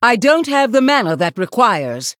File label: Female Human ERROR NEED MANA